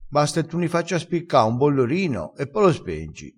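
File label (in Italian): "spicca", vocabolario dialettale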